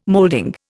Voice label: "moulding", Indian